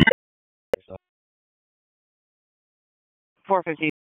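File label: Police Southeast District Dispatch; Raleigh; wake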